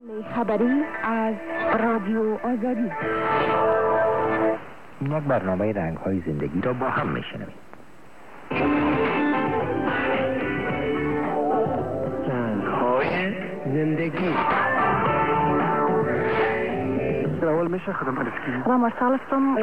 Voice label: 17880 kHz, dari; Radio Azadi; FT2000; dipolo

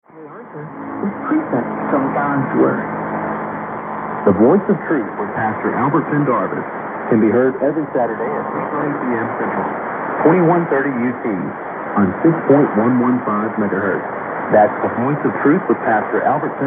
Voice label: english; WWCR; Nashville, USA

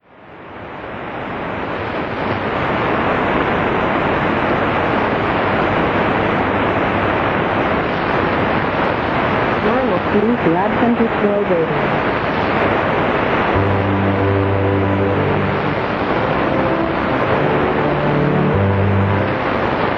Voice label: 12085 kHz kanuri; AWR Guam; Degen DE 1103; filo 5m indoor; St.Ursen

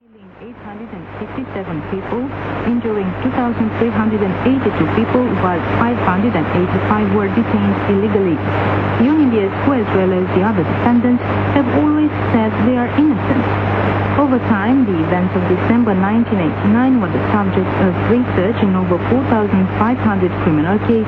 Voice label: Radio Romania International 11960 kHz inglese; Degen DE 1103; filo 5m indoor; St. Urs

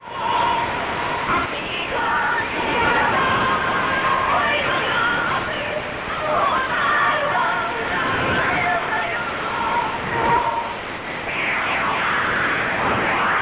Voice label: 12120 kHz english; Radio Pilipinas; Philippines; FTDX5000; Schwarzsee

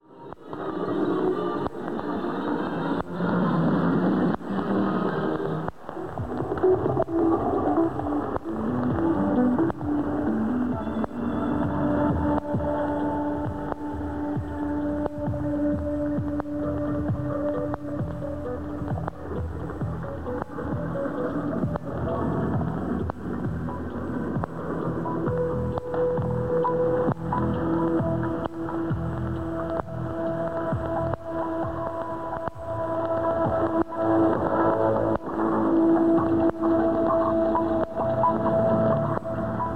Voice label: 3985 kHz tedesco; Schweizer Radio SRF; FT2000; dipolo

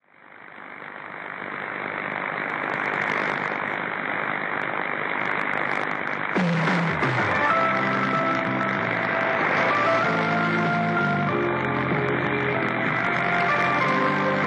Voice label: 15180 kHz english mongolian; AWR Guam; Perseus; Schwarzsee

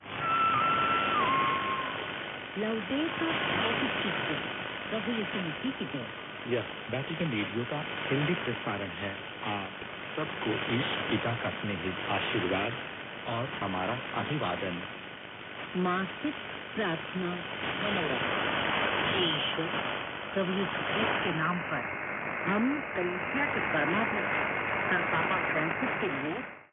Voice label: Vatican Radio, hindi; Perseus; Schwarzsee

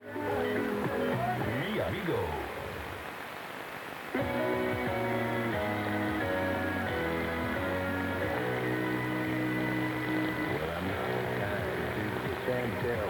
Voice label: Radio Mi Amigo, 6085 kHz, german; Germany; Yaesu FT 2000; Schwarzsee

